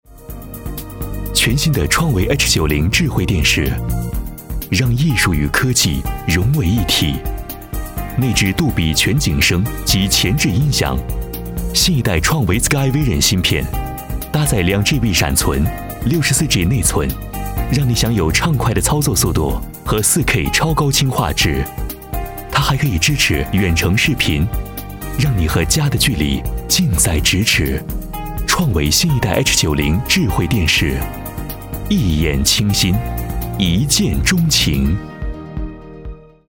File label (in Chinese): 男 科技; 创维